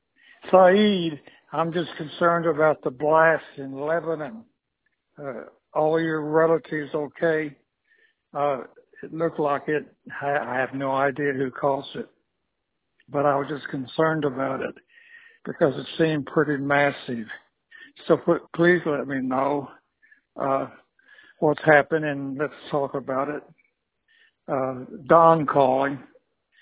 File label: voicemail